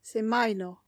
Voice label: "semajno", esperanto; vortoj